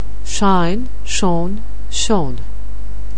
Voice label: "shine", anglais